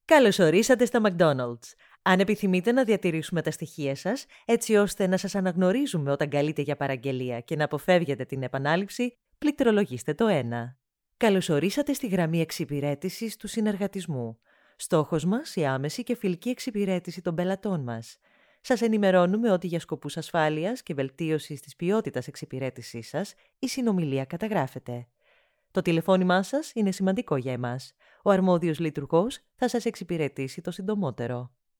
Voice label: greek ivr

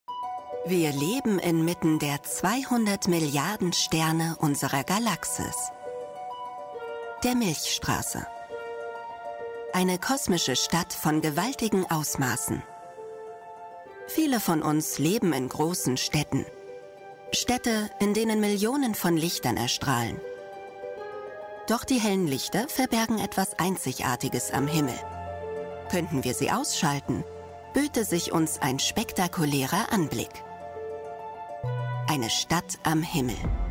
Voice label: german narration

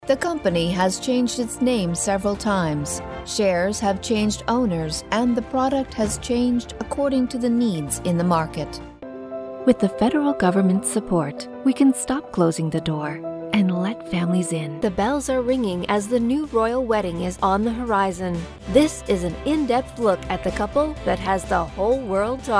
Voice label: english canadian corporate videos

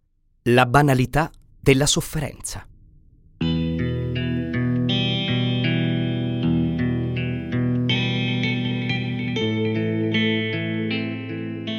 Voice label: Italian audiobooks

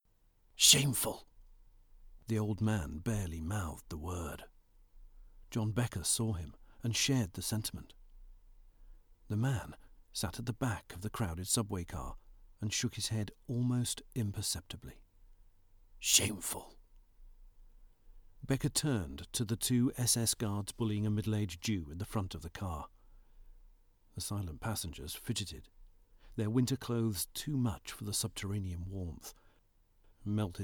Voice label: english british audiobooks

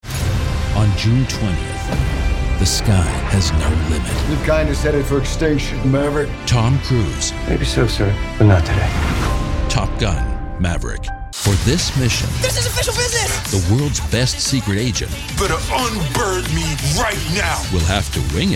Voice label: english canadian movie trailers, voice actors

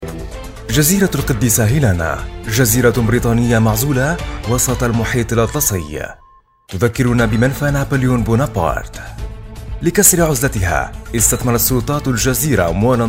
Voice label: arabic promos

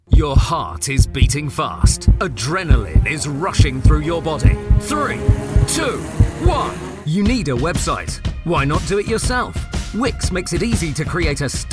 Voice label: english british commercial

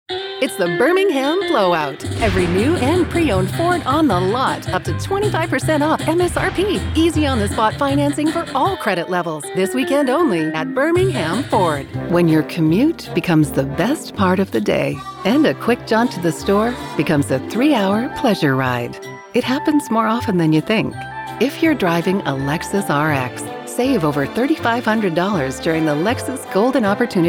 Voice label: english canadian automotive

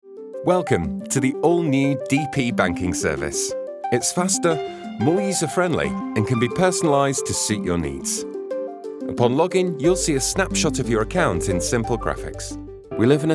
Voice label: english british explainer videos

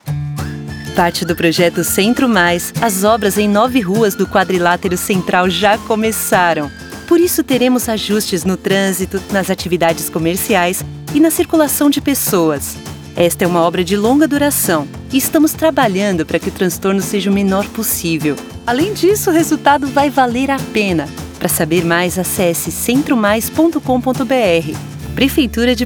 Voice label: portuguese brazilian promos

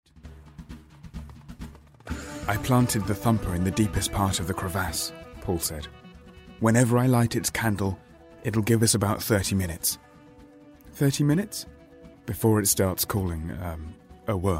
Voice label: english british audiobooks; British English voice actor

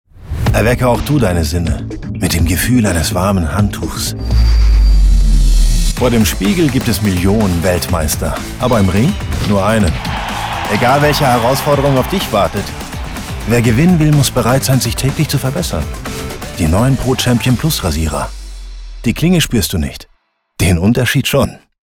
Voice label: german ivr; Deutschsprecher aus Berlin

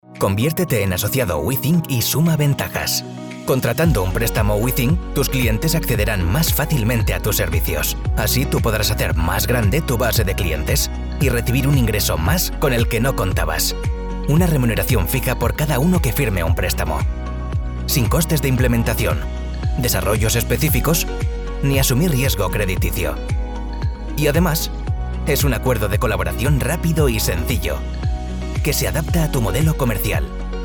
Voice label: spanish corporate videos

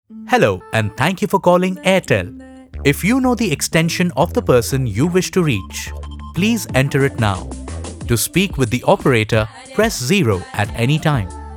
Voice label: english ivr, hindi voice actors; formally trained and experienced

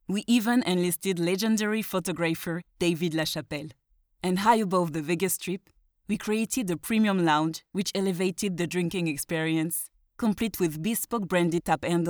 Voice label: english corporate videos, french voice actors

